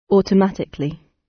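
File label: "automatically" British English; Technology; Công nghệ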